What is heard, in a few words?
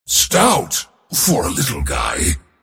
last hit pain; Mars